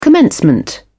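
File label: "commencement", English, British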